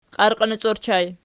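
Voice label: "qiarqinoci" ergative plural, Archi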